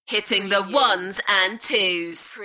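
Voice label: British female Hitting the ones and twos; beanstalk audio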